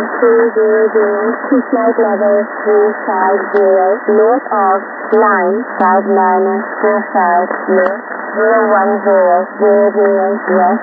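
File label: Volmet Volcanic Ash Info, HF Radio; Shannon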